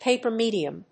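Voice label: "PAPER MEDIUM", English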